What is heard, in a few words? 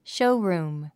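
"showroom", English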